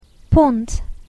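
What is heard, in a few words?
"pond", English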